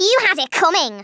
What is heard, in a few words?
REVENGE, Speech, Scouser